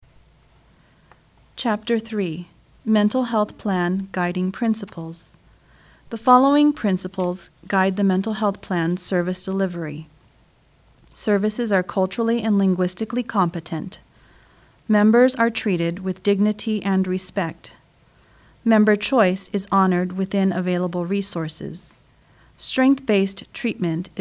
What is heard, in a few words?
English; Member Handbook